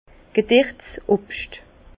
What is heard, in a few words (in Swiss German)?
"gederts", Bas Rhin; fruits secs; vin